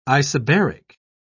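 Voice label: "isobaric", British English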